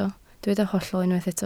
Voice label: "hollol", Welsh

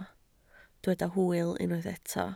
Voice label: "hwyl", Welsh

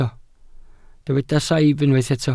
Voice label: "saib", Welsh

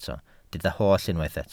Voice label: "holl", Welsh

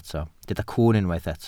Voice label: "cwwn", Welsh

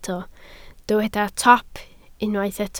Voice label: "top", welsh

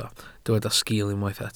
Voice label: "sgiil", Welsh

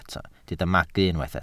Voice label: "magu", Welsh

